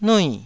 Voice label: "nui4", Taiwanese